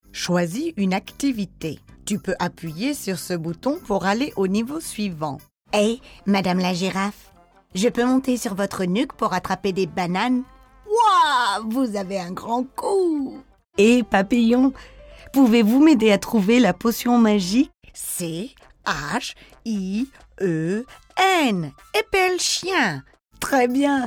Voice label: FR Toys